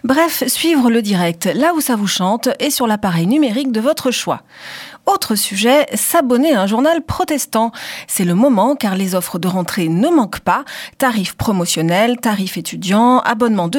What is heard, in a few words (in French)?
Spot radio; Regards protestants